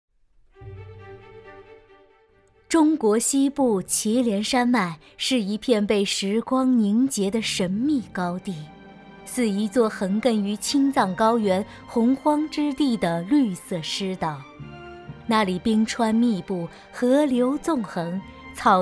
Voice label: 纪录片; 祁连山

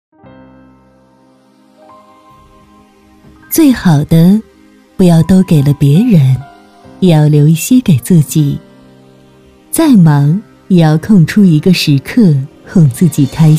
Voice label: 化妆品广告

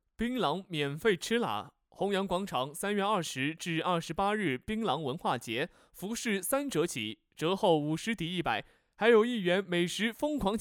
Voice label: 广告

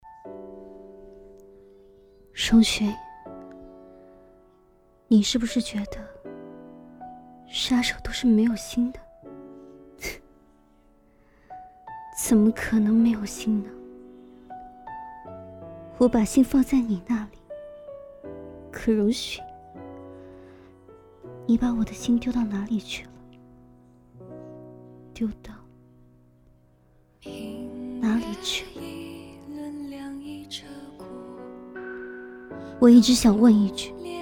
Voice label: 古风 小说 配音

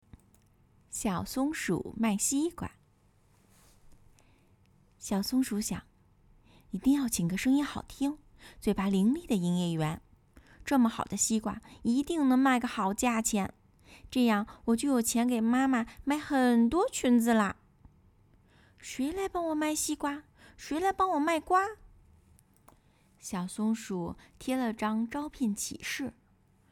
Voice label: 试音 小松鼠卖西瓜

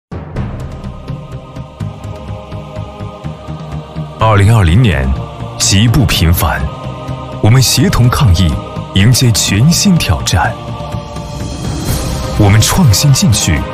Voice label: 大气浑厚; 中国移动四川分公司

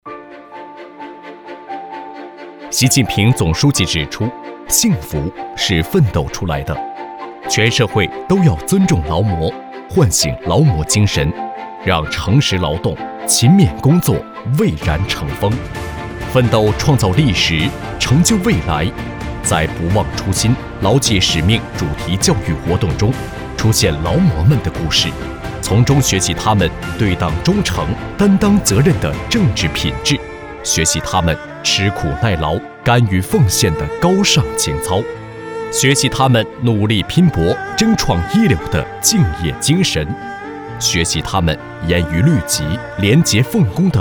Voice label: 宣传政府汇报类; 劳模榜样力量

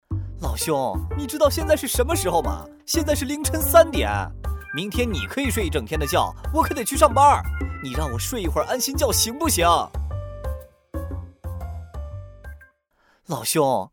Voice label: 角色青年

